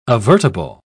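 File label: "avertible", British English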